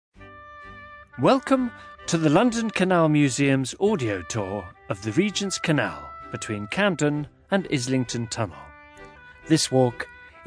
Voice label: CORPORATE